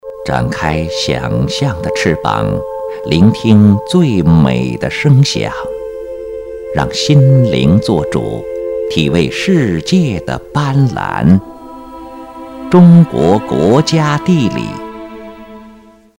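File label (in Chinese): 纪录片_人文历史_讲述; 中国地理; 任志宏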